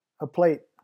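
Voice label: "a plate", English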